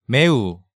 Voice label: "Maeu", Korean